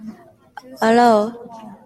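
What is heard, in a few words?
"aloe", Japanese, female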